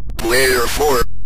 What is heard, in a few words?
player 1, Synth Voice; Kenney's Sound Pack